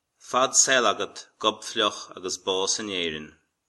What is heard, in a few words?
cheers, irish